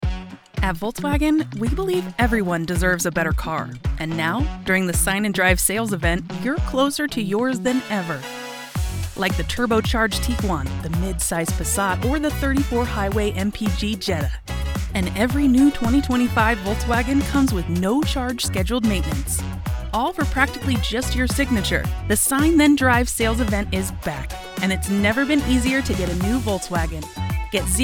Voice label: Conversational, Articulate, Upbeat, Informative, Clear; Volkswagon